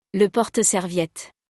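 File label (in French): "Le porte serviette", French; vocabulaire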